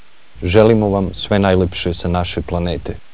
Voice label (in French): serbo-croate; voyager